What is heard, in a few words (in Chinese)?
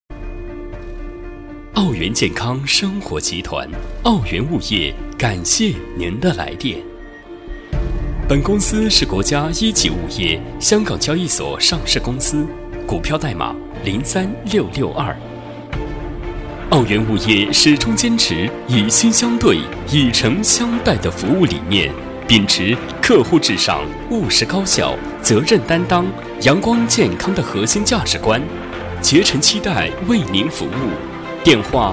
物业彩铃; 奥园物业